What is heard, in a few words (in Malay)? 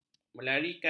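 "melarikan", Malay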